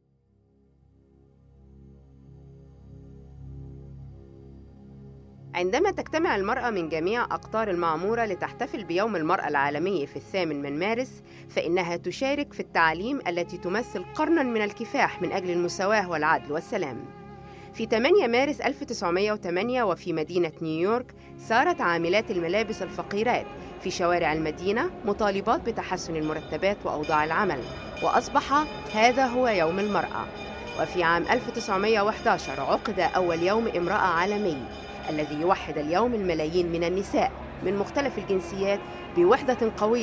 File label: Arabic